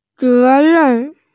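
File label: "ze2ren4", Mandarin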